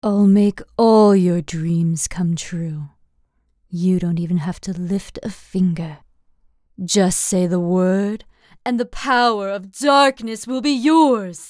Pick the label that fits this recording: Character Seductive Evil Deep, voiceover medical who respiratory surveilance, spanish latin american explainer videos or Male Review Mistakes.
Character Seductive Evil Deep